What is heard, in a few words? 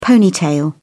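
"ponytail", British English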